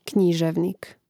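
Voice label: "književnik", Croatian; Mrežnik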